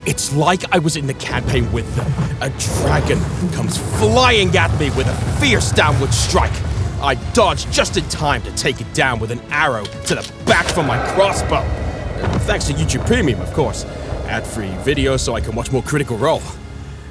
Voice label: Commercial

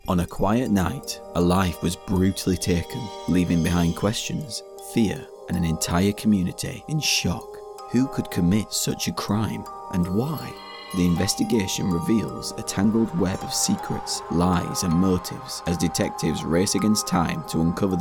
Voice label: crime doc

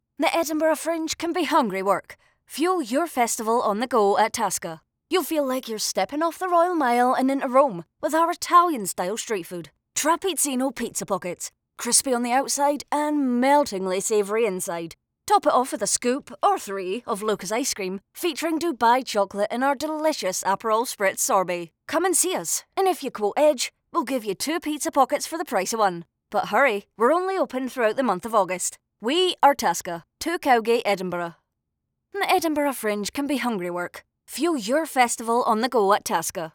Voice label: Radio Spot

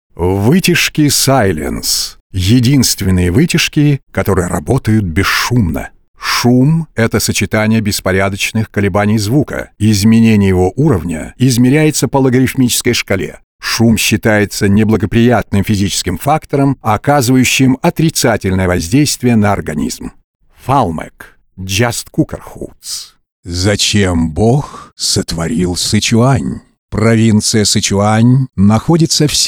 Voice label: Voice Actor; BigMouthVoices

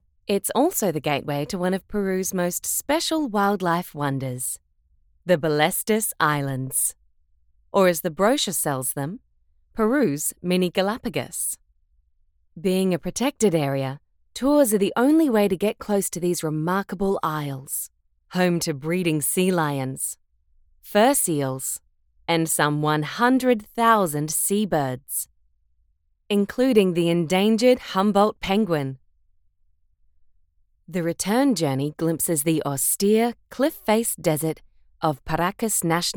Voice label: Documentary Read

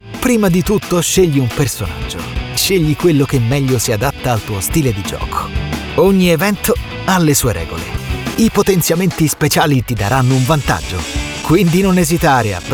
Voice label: Videogame Dubbing; en-US

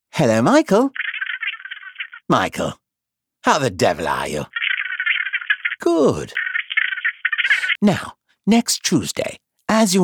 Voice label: Gentleman Cad

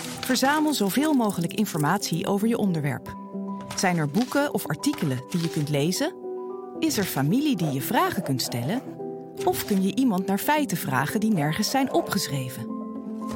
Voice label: Explainer onderzoek in het archief

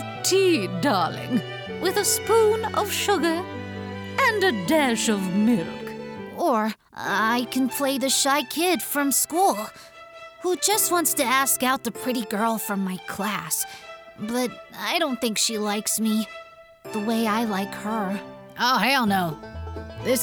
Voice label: CHARACTER

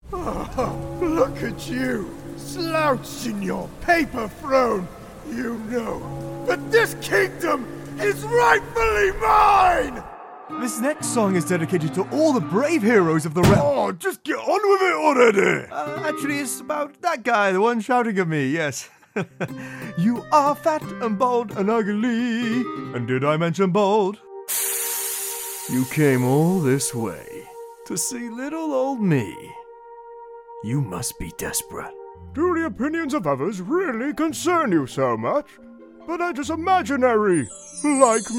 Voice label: Animation

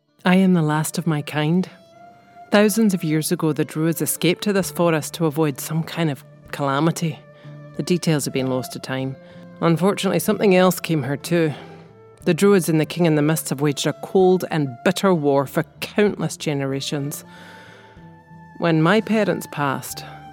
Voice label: Scottish accent

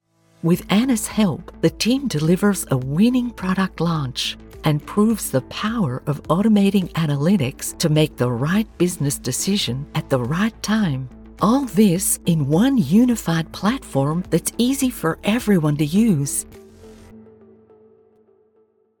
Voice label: American Accent Animation; Bigmouth

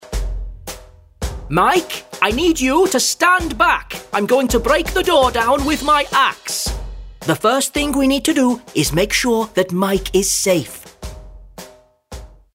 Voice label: Animation Welsh

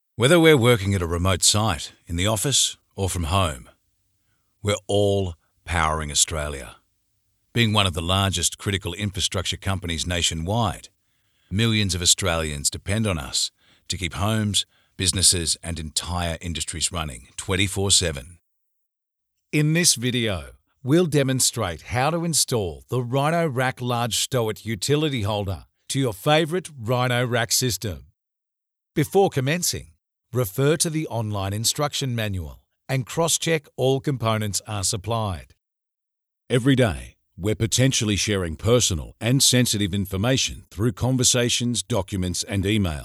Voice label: Elearning

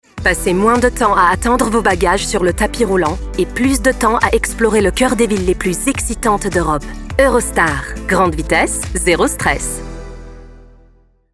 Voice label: Commercial, FR; EUROSTAR

